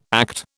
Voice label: "ACT", British